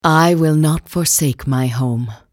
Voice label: attack, en US; Anivia

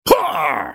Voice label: effort, en US; Udyr

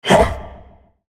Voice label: effort; Xerath; Character Voices - English

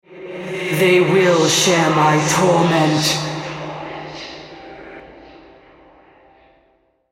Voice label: Altar Capture, Female

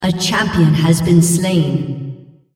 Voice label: female On Champion Kill Hero

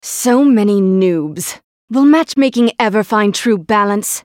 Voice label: joke, en US; Akali

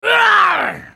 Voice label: spellcast effort, en US; Trundle

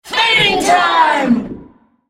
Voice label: ultimate effort; FizzSkin04

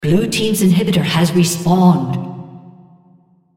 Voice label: On Dampener Respawn blue, female

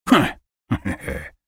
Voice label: ultimate effort, en US; Twisted Fate

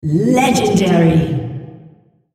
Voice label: female On Killing Spree

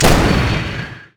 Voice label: battlecry; Xin Zhao; zhaoyun

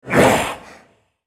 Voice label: effort, en US; Thresh

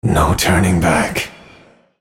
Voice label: attack, en US; Varus

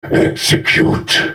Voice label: attack, en US; Urgot

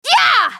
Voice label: ultimate effort; Nami; Character Voices - English

